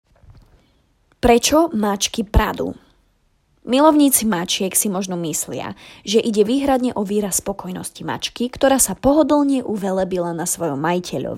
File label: Slovak